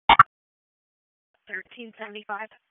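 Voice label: Raleigh Police Southeast District Dispatch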